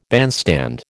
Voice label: "bandstand", American